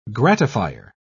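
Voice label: "gratifier", British English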